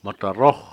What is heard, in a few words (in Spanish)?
"lodo", Cucapá